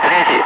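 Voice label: Russian bright; Ka-50; Wingman; DCS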